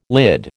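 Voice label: "lid", American English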